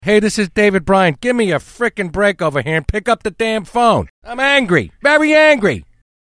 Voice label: Pick Up The Damn Phone Im Angry, American, Ringtone